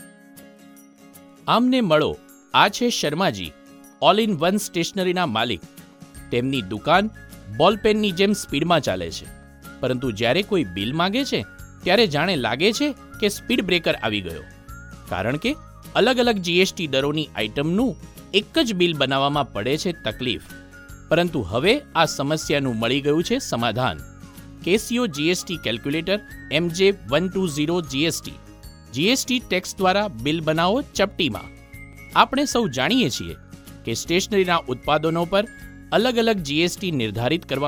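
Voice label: explainer GUJARATI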